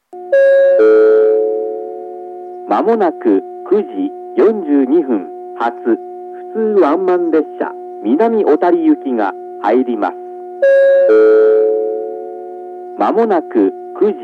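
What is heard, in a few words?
track 1 down approach advance notice; ariake; １番線下り接近予告放送; 9:42発普通ワンマン南小谷行の放送です。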